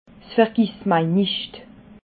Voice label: "vergissmeinnichtle", alsace

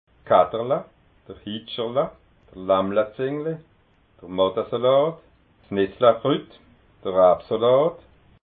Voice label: "katterla", alsace

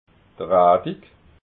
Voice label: "radig", alsace

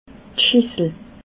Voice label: "schissel", alsace

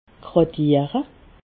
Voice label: "gradiere", alsace

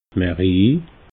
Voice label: "mairie", alsace

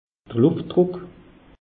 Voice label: "luftdruck", alsace; meteo

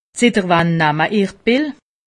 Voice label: "pill", alsace; medecine

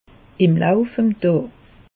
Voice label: "loif", alsace; meteo

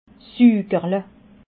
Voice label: "sugerle", alsace

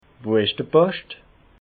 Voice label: "poscht", alsace

